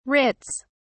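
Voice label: "writs", English, British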